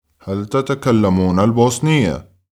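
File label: Arabic, male, Sprechen Sie Bosnisch?; هل تتكلمون البوسنية؟; Arabisch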